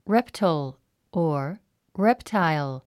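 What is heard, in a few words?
"reptile", English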